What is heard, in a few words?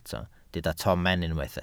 "tomen", Welsh